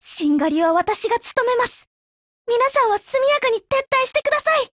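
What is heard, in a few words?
retreat, Japanese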